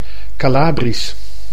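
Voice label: "Calabrisch", Dutch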